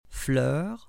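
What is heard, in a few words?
"floer", French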